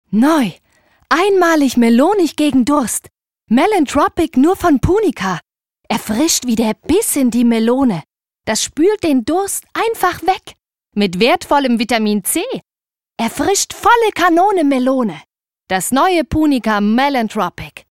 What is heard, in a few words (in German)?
Werbung; punica